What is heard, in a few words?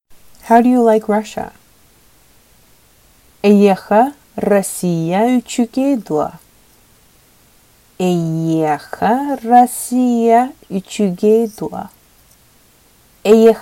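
Sakha How do you like Russia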